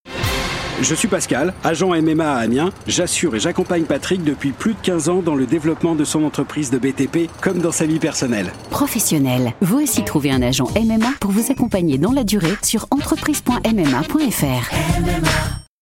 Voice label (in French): Pub Radio; MMA; Role du conseiller